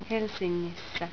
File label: "Helsingissä", Finnish